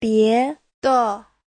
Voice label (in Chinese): "bie2de5", Chinese